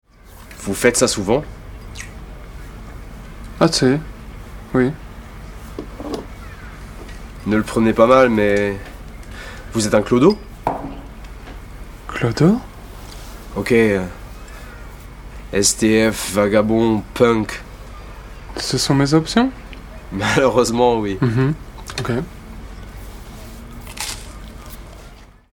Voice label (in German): Französisch Synchron